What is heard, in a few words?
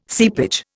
"seepage", Indian